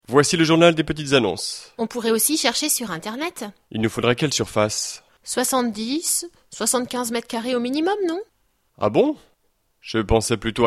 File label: dialogue, French